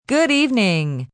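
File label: News Good Evening, Broadcast, announcer, greetings, female; greetings/wrap-ups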